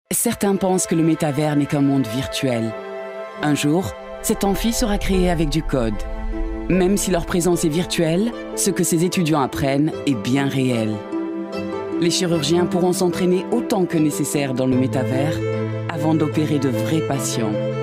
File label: French VO COMMERCIAL